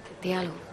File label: Voice Acting es F